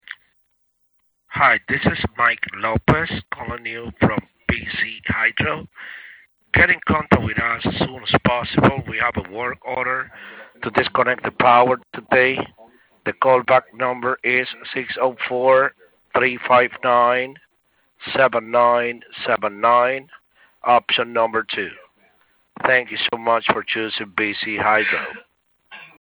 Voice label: fake voicemail; BC HYDRO